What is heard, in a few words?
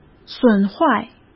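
"损坏", Mandarin Chinese; chinese-flashcards; hsk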